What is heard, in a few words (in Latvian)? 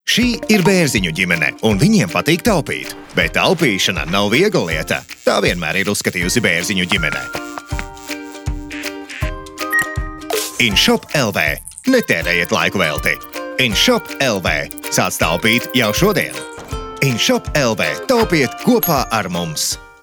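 Advertisement men Latvian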